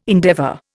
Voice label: "endeavour", Indian

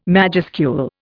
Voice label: "majuscule", Indian

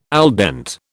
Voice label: "al dente", British

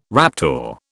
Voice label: "raptor", British

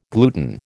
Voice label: "gluten", American

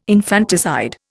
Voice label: "infanticide", Indian

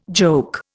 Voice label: "joke", Indian

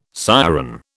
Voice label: "siren", British

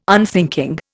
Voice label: "unthinking", Indian

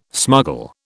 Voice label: "smuggle", British

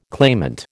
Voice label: "claimant", American

